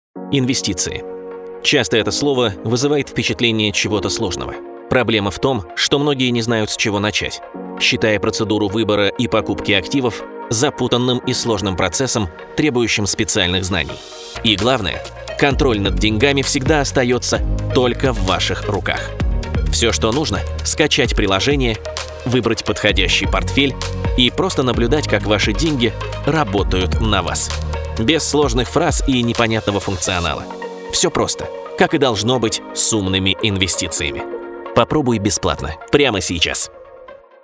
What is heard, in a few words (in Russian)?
zakadrovyy; golos webinar